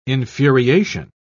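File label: "infuriation", British English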